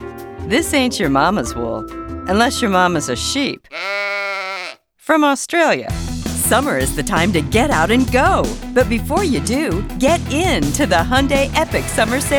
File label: Commercial